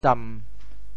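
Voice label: "tsam1", Teochew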